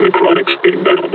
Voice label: announce enemy zombie